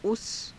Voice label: "usa", hindi